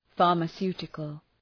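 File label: "pharmaceutical", English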